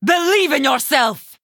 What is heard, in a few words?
Female Draenei Attack